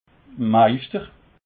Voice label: "meischter", alsace

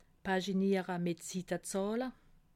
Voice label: "paginiere", alsace

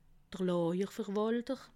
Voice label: "lawerverwalter", alsace

